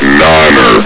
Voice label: "niner", Combine soldier; hl2

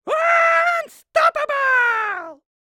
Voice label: troll voice unstoppable dry mono; Character Troll; SpillAudio

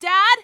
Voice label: scared; eli lab; hl2

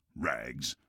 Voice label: rags, combine soldier; hl2

